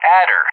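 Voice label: "ADDER", English; JTAC; Callsign; DCS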